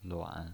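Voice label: "luan", pinyin, Mandarin Chinese, third tone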